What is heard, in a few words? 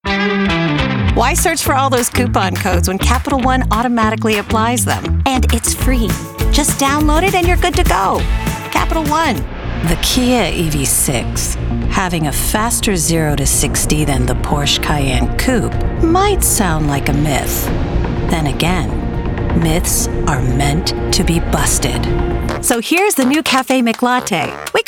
Commercial